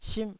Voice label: "simh4", Taiwanese syllable

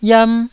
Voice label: "yam1", taiwanese Syllables

